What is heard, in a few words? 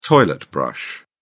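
"toilet brush", British English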